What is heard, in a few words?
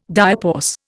"diapause", Indian